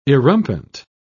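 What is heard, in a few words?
"erumpent", British English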